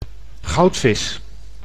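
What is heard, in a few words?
"goudvis", Dutch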